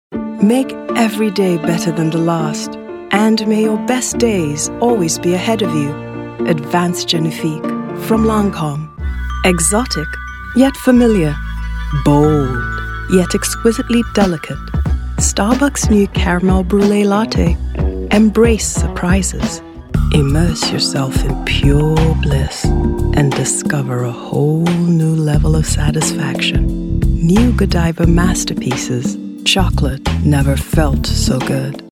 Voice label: english african commercial; Englisch (afrikanisch); Vereinigte Staaten